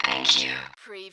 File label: Robot voice, thank you